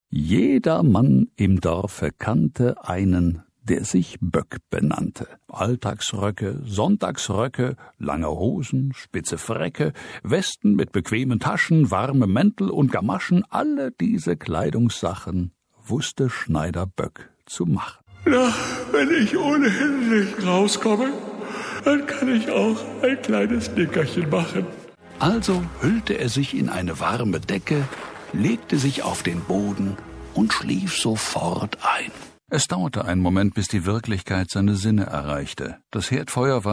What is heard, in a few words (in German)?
Erzaehler Hoerbuch Collage